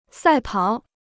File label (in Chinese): "赛跑", Chinese